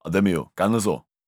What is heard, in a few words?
neutral short